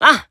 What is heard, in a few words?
Emo Yes